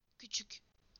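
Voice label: "small", Turkish; PictureDictionary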